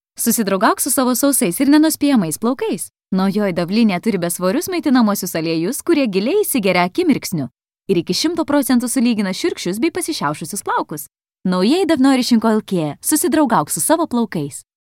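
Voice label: Lithuanian, female; character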